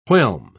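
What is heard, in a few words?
"whelm", British English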